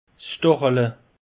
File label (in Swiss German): "stachele", alsace; peche